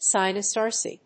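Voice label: "Sinus tarsi", English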